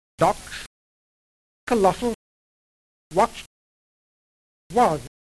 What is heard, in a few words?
rp lot, accents